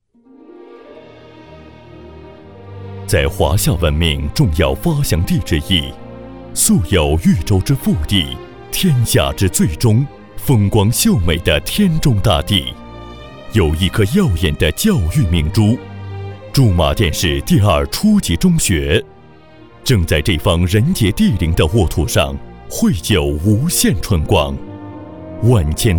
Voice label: 男, 大气学校专题; 驻马店第二初级中学

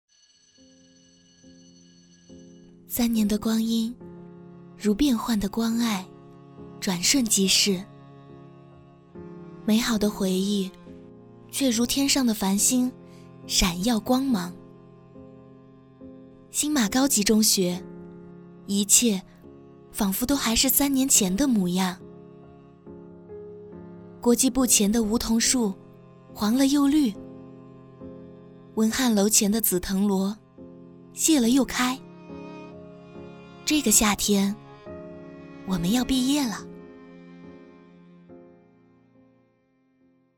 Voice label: 旁白; 新马中学

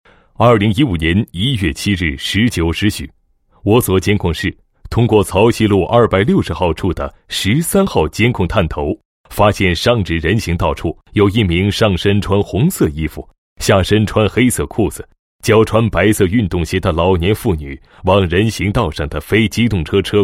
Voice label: 男 解说; 反邪教案例解说

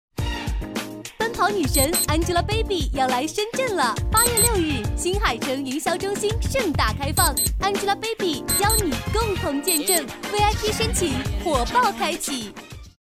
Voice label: 广告 活力年轻, 国语女声配音; 奔跑女神

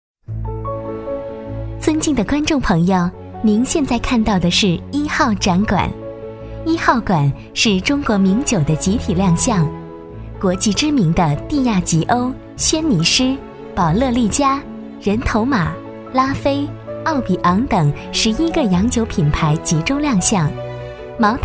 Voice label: 女 专题 亲切, 国语女声配音; 酒博会

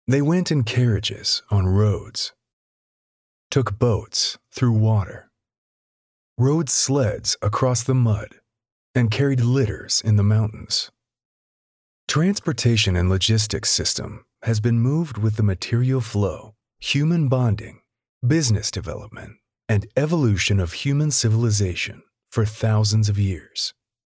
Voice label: 外籍英男, 干音, 深沉叙述

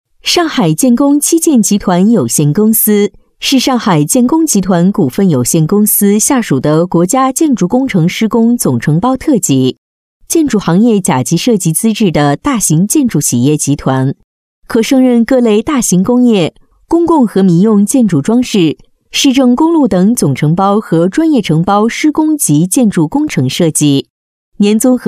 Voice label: 女 专题 工程解说类

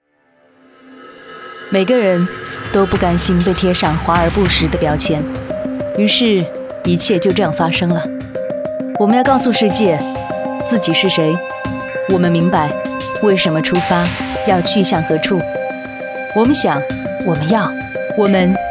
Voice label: 女, 个性广告, 酷酷哒

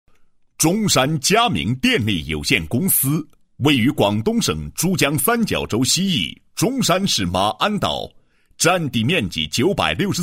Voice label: 男 申报材料; 优秀工程项目管理奖申报视频文案

